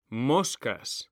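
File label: "moscas", Spanish, male; mekanta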